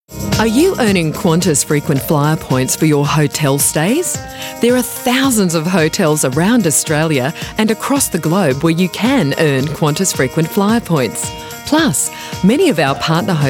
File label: voiceover